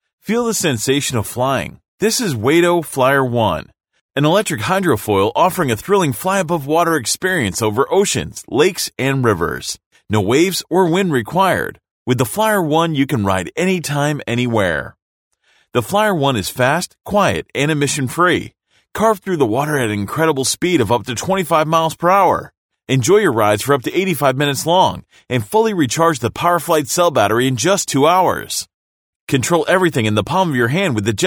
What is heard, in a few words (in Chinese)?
男 水上滑翔装置简介; 质感磁性